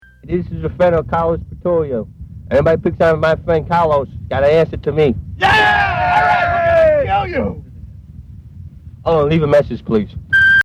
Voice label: Phone Msg